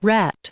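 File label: "rat", English; noun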